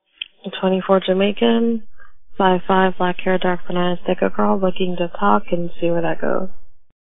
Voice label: Girl